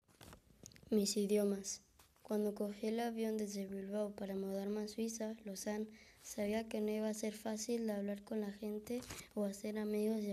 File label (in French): espagnol